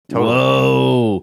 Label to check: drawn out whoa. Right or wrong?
Right